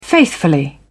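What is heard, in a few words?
"faithfully", British English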